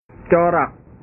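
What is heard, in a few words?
"corak", Indonesian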